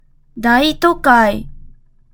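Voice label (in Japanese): "大都会", Japanese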